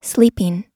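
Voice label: "sleeping", American English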